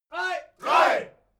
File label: military shout german three